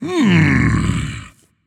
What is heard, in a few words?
thinking